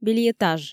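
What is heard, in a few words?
"бельэтаж" Komi, female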